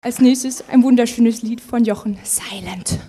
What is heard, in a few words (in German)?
Ansage